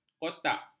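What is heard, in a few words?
"kotak", Malay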